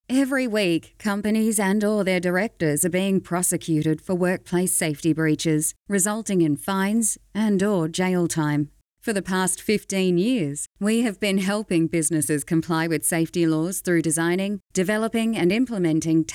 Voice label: Conversational